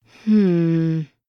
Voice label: Thinking